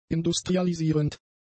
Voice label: "industrialisierend", German; adjectives

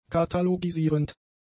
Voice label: "katalogisierend", German; adjectives

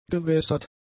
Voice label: "gewässert", german; adjectives

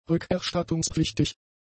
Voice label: "rückerstattungspflichtig", German; adjectives

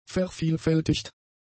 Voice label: "vervielfältigt", German; adjectives

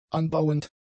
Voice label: "anbauend", German; adjectives

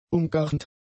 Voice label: "umgarnt", German; adjectives

